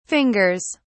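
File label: "fingers", British English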